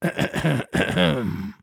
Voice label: trader male dislike greeting